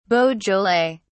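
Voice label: "beaujolais", British English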